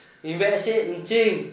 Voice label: "embæʔseʔ ntʃiŋ", Gta, Dialect: Hill; left leg